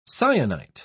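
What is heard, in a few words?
"syenite", British English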